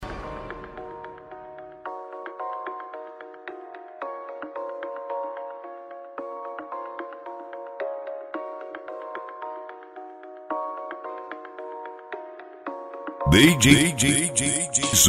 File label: rap